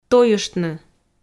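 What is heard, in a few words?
"тойыштны", Komi, female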